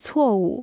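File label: "错误", Chinese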